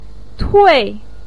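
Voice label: "tui4", Mandarin pinyin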